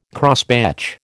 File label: "Crosspatch", American